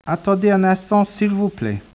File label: speech, French